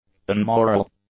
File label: "unmoral", English; adjectives